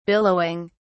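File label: "billowing", English, uk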